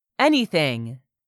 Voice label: "ANYTHING", english; 3000 words

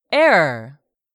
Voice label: "ERROR", English; 3000 words

